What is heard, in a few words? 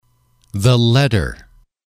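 "the letter", English, male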